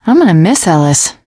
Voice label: teengirl, voice; Left 4 Dead 2; l4d1 finale

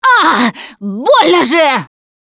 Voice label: defibrillator, russian; Producer

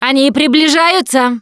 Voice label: incoming, russian, Survivor Voice Producer

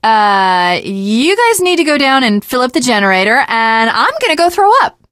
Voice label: finale chat, teengirl, voice; Left 4 Dead 2

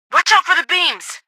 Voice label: stay back beam; Garry's Mod; hl2; Citadel

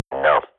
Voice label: radio bot reponse negative, Counter-Strike swat; Global Offensive; player vo

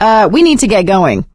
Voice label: move on, survivor voice; Left 4 Dead 2; producer

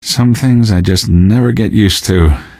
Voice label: question, male; Garry's Mod; hl2

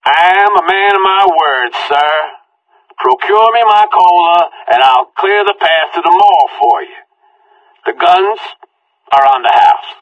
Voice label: conversation; Left 4 Dead 2

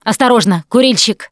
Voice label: heard smoker, russian; Producer

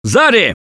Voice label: watch out behind, russian; Gambler

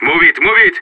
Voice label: radio lets go, separatist; player vo

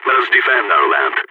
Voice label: radio lock n load, balkan; Counter-Strike Global Offensive

